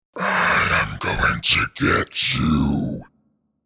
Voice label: I am going to get you spoken in deep monster voice; Evil Voices; Horror